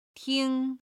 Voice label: "ting1", Chinese